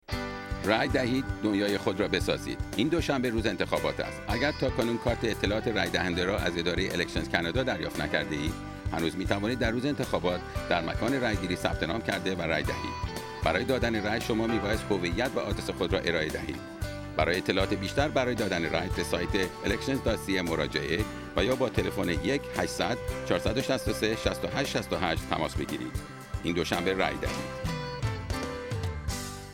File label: election farsi, radio ad